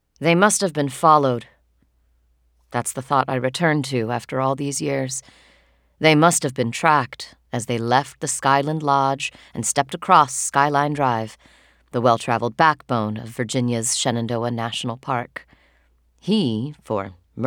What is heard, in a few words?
Narration Sample True Crime